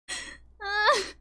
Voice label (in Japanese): ヘタレボイス; Flashpoint Ultimate 13; TheHouse